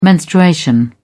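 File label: "menstruation", British English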